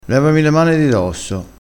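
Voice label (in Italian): "mane", dialettale crespinese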